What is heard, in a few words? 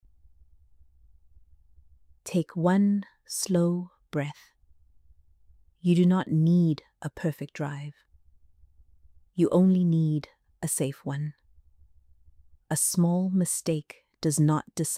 calming reassurance; panic mode